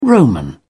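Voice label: "Roman", British English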